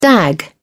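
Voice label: "dag", British English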